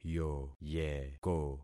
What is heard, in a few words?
"yoyeko", concat syllables; Affixing experiment